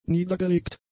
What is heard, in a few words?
"niedergelegt", German; adjectives